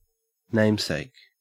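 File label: namesake, Australian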